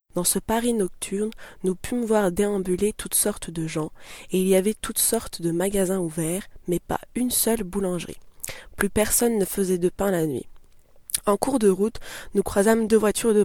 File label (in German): frauenstimme neutral